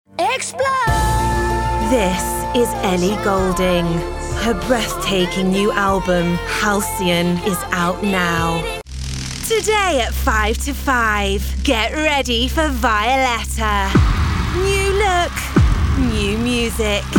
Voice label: languages female british

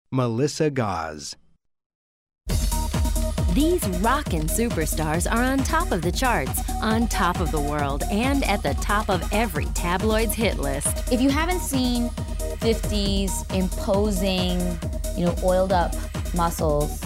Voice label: narration

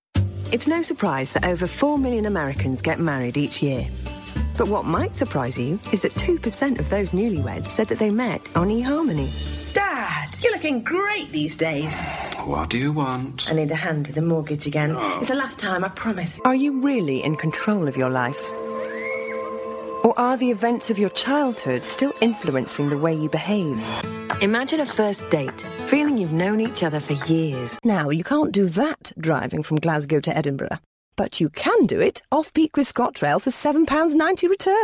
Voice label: languages british

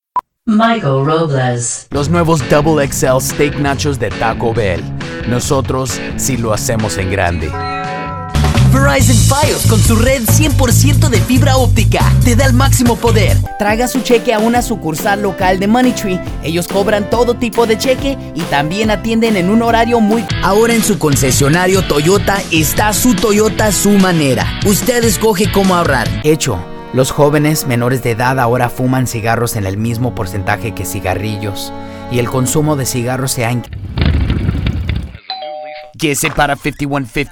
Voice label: languages spanish

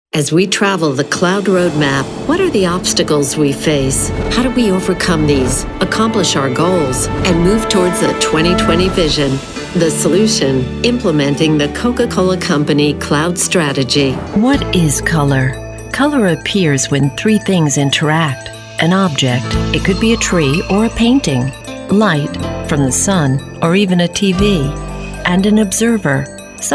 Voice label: narration industrial

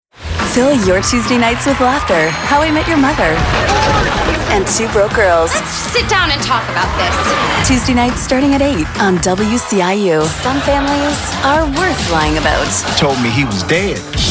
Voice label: promo women

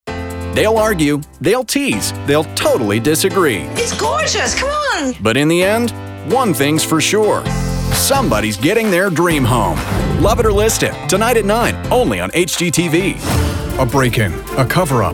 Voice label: promo men

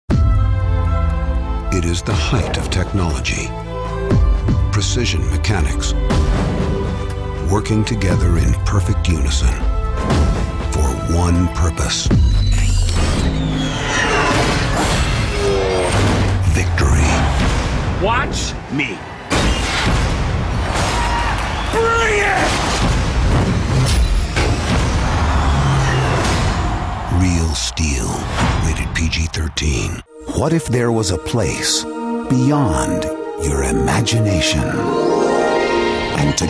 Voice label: trailers men

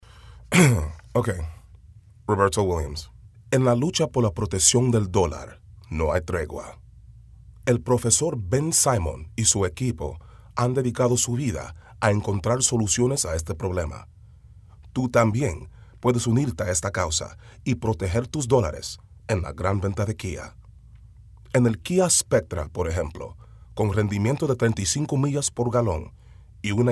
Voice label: Spanish; languages and accents

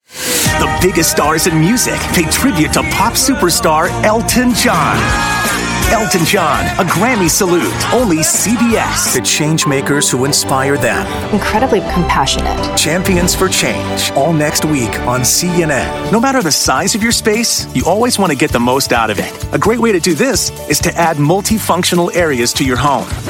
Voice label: promos men

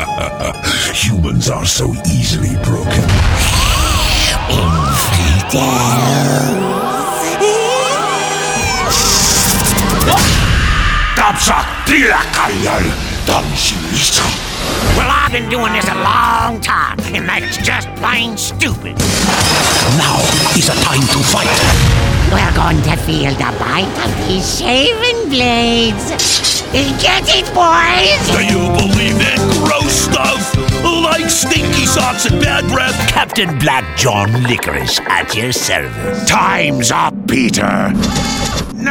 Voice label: Animation, Voice Over, Men

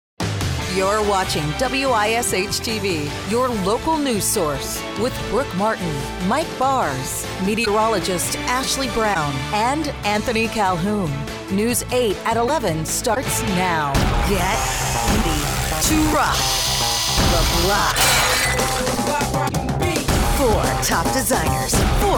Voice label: promo women

